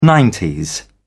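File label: "nineties", British English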